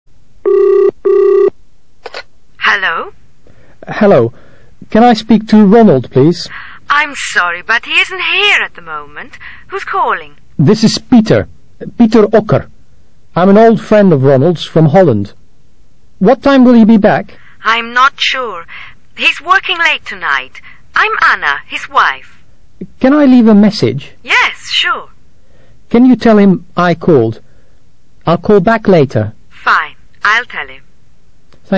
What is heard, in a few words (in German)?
Telefon; icao